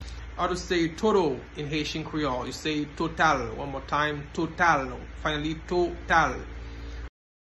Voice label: Total in Haitian Creole Total pronunciation by a Haitian teacher